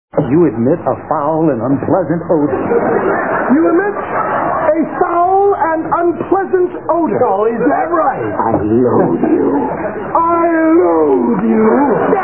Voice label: unpleasant